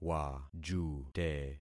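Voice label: "wajute", concatenated syllables; Affixing experiment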